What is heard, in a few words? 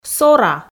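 "сора", Komi, female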